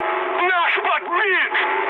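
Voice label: Russian aircraft destroyed mood high, speech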